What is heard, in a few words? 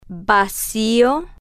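"vacio", Spanish, female, slow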